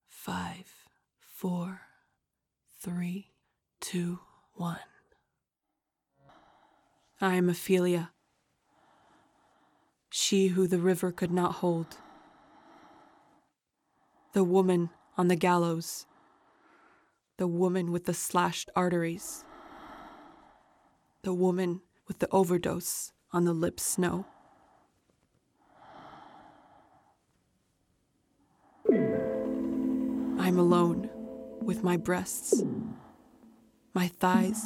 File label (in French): Monologue douleur film; Ophelia